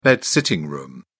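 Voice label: "bed sitting room", British English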